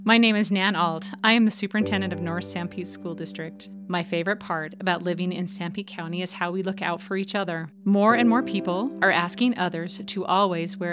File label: Radio Ad; SANPETE